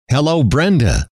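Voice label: "hello", male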